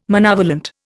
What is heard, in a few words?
"monovalent", Indian